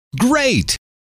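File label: "great", male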